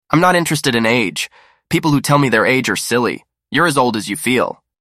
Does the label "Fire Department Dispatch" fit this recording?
No